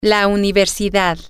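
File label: the university, Spanish, female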